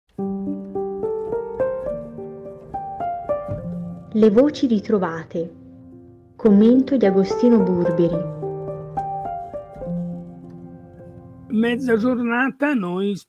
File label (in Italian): Narrazione